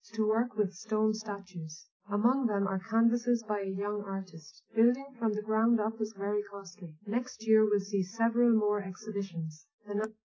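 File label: female speech; SiSEC10; underdetermined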